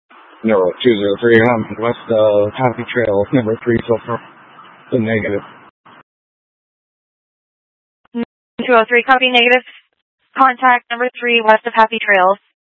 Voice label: Mutual Aid-Intersystem Interop B, scanner